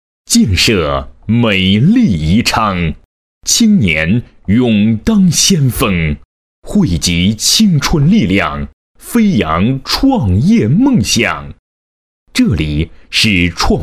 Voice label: 男 多媒体, 国语男声配音 厚重专题

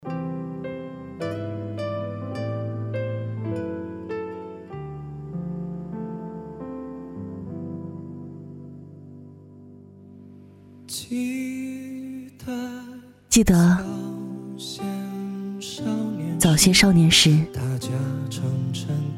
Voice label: 女 走心独白; 从前慢

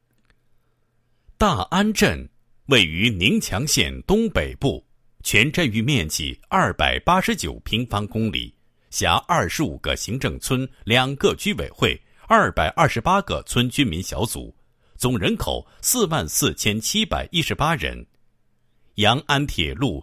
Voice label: 男 纪实专题; 大安镇创建省级生态乡镇

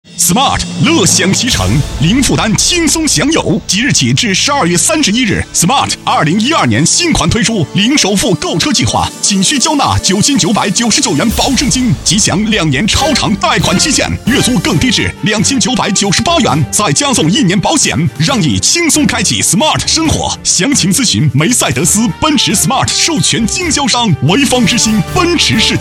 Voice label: 男 品牌广告; 国语男声配音

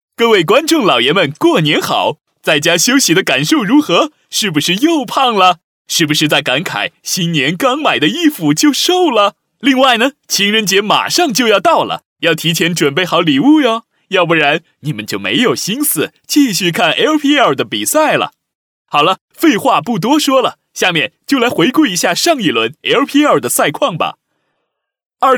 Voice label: 男 游戏 赛况, 国语男声配音; 英雄联盟第二期LPL赛况

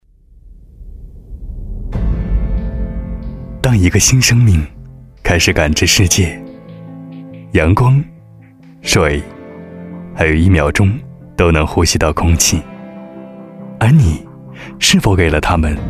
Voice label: 男, 品牌, 温暖呵护

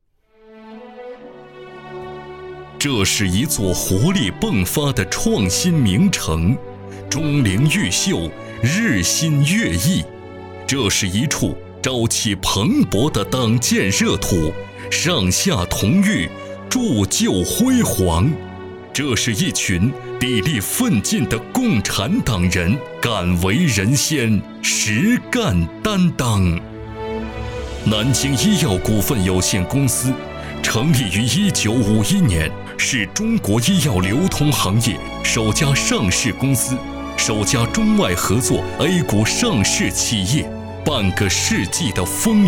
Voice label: 男 专题 朝气蓬勃; 铸就辉煌

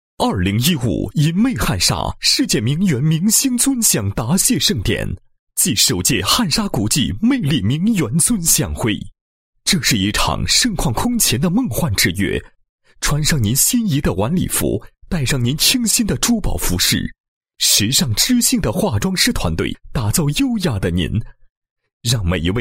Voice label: 男 视频解说 邀约视频; 名媛会

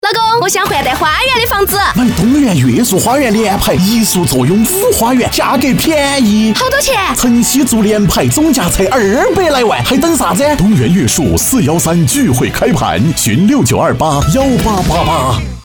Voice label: 川话广告 别墅; 东园别墅

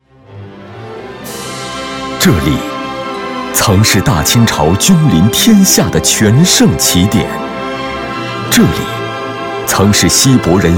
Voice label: 男, 专题, 历史大气; 文化地标

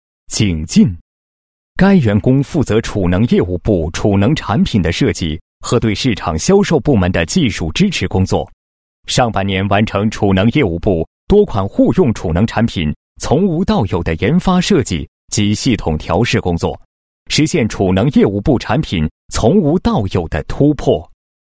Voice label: 男 人物; 高级工程师优秀贡献奖

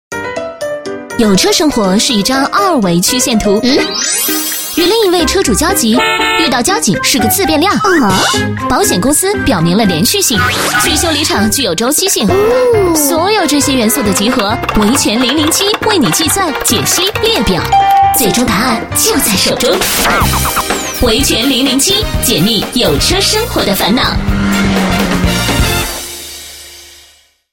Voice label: 女4号 栏目包装, 国语女声配音

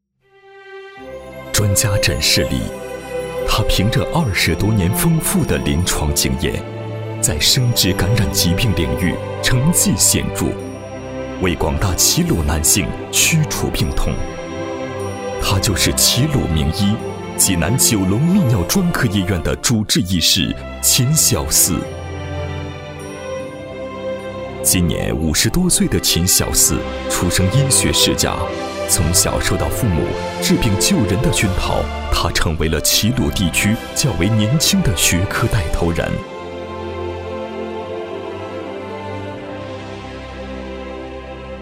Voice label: 男 人物 医生样, 国语男声配音; 大气专题

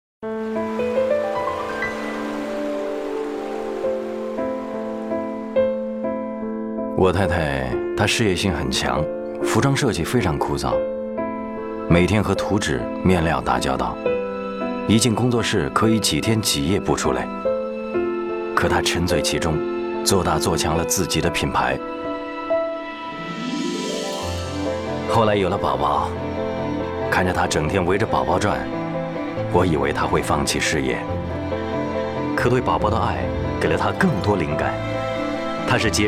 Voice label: 男 自然风格, 国语男声配音; 内心独白

